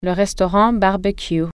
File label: barbecue restaurant, french